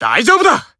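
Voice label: Voice Emotion Inspire Team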